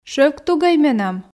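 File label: шӧвктугӧй менам, Komi, female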